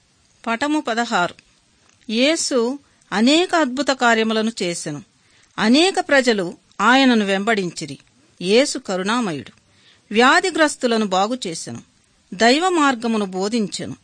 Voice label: good news telugu